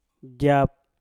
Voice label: "jap", Mpur; duduk